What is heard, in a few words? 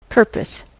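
"purpose", US English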